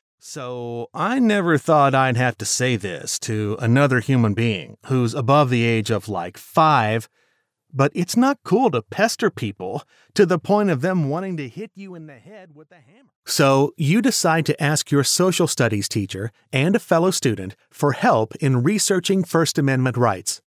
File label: male american voice over character, english american